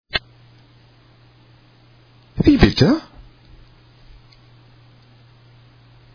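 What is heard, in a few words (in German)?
"Wie bitte", German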